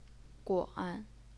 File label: "guan3", Chinese pinyin